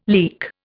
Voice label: "leek", Indian

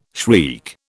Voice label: "shriek", British

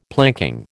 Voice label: "planking", American

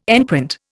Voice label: "enprint", Indian

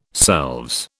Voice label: "selves", British

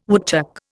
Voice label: "woodchuck", Indian

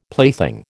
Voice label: "plaything", American

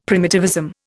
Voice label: "primitivism", Indian; Dictionary

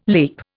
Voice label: "leap", Indian

